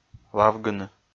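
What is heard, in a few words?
"лавгыны", Komi-Zyrian, male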